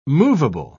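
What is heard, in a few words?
"moveable", British English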